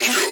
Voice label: Vocal Pitched Yeah; bass house

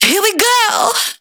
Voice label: Vocal Phrase Female Here We Go Dry; bass house

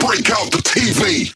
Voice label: Vocal Phrase Male "Tv"; bass house

